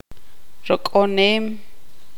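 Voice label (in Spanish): "rascar", Tz'utujil, female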